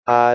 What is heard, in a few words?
Male prototype 'had'